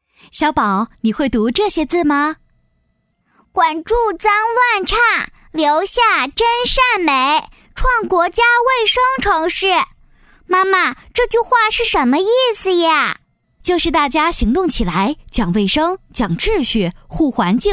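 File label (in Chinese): 女-公益广告【女童+女声】; 温州卫生城市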